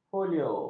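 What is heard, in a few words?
"folio", Malay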